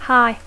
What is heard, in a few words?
"high", English